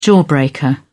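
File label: "jawbreaker", British English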